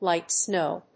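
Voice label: "light snow", English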